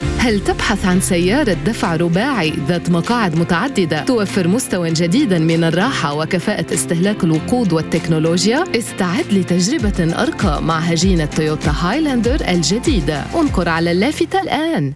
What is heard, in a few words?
Modern Standard Arabic In App Ad; Toyota Highlander Hybrid